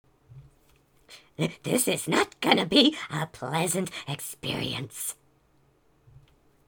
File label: Male Begging